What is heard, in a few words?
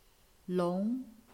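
"long2", Chinese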